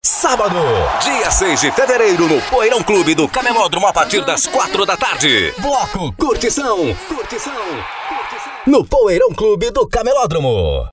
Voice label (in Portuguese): Voz locutor balada, Vinhetas e Chamadas para Festas; Voz locutor lj balada